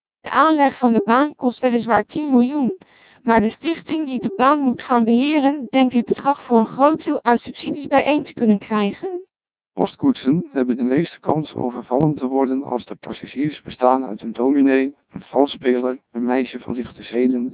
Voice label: Dutch, CODEC2 1200 bps